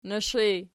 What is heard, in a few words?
"noish", Archi